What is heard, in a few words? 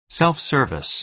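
"self-service", American English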